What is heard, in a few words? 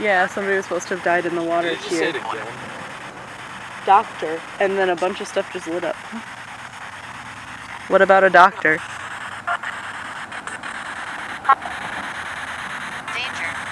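doctor danger, evp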